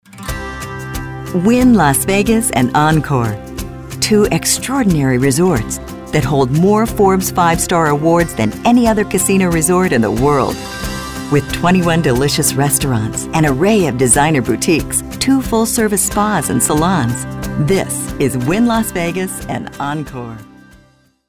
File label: classy sophisticated romantic